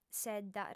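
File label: "sedda", female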